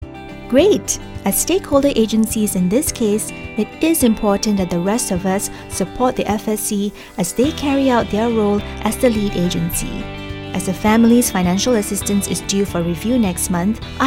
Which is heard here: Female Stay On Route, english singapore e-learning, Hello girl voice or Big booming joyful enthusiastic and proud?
english singapore e-learning